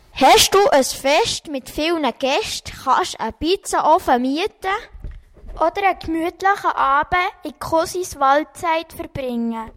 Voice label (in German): Werbung; Waldside; Golaten